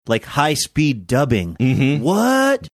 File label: like high speed dubbing